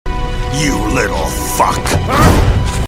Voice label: rage; open fortress; saxtonhale; armstrong